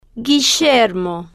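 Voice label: "guillermo", slow, Spanish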